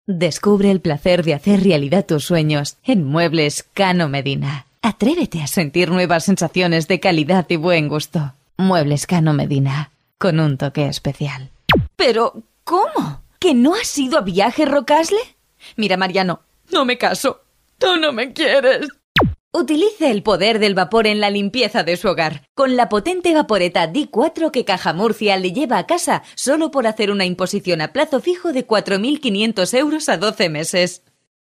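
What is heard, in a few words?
Spanish, female, commercial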